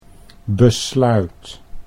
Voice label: "besluit", Dutch